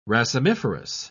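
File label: "racemiferous", English, uk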